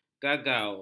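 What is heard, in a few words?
"gagau", Malay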